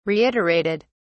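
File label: "reiterated", British English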